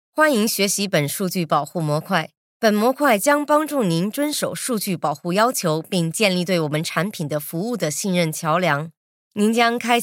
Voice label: chinese mandarin e learning